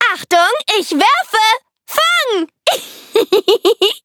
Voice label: loading, de; cookierun

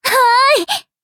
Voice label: level up, ja; Cookie Run

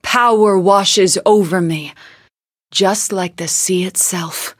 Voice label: level up, en; cookie run

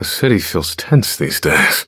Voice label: eng menu idle; black pudding

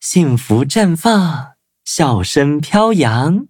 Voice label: levelup zh-hant; Cookie Run

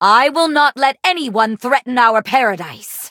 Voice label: battle en; cookie run

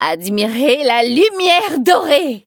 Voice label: battle, fr; cookie run

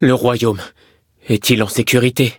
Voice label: defeat, fr; cookie run

